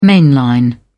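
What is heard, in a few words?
"mainline", British English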